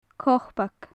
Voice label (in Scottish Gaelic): "copag", gaelic; dock; faclan nadair